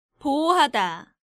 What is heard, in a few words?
"Bohohada", Korean